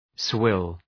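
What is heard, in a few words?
"swill", English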